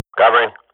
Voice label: radio bot reponse cover request, Counter-Strike swat; Global Offensive; player vo